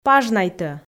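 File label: "пажнайтӧ", Komi, female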